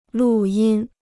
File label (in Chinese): "录音", Chinese